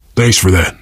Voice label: thanks, player survivor voice biker

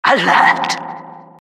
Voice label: announcer alert

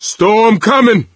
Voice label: misc directional, coach, survivor voice

